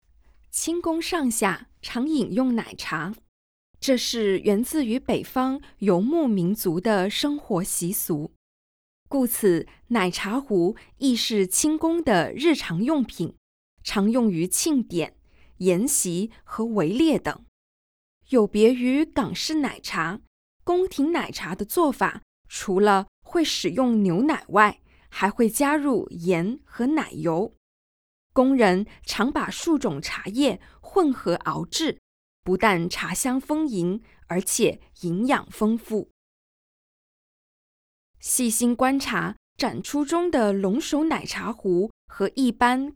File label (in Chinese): Mandarin VO; audio guide; g2-from-dawn-to-dusk